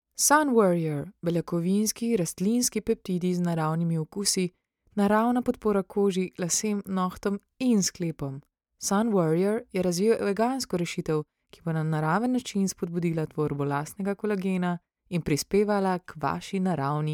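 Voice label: Slovenian, female, voice over; slovenian voice over talent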